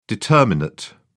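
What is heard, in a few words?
"determinate", British English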